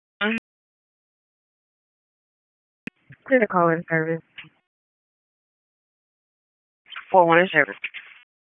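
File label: EMS Dispatch, p25; wake p25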